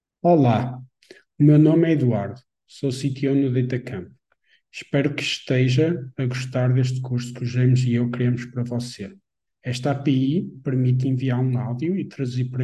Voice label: audio portuguese